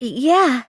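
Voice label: "yeah" spoken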